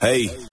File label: "hey" male; ooh ahh